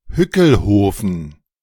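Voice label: "Hückelhoven", German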